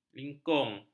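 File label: "lingkung", Malay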